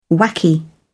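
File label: "wacky", British English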